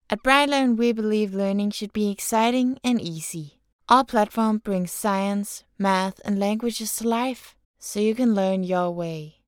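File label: Danish female English commercial explainer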